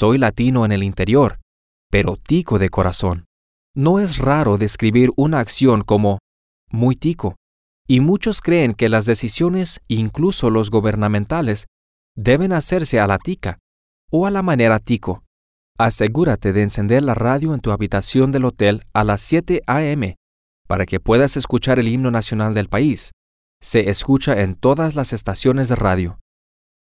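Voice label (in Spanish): Costa Rica, los costarricenses, Spanish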